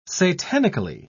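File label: "satanically", British English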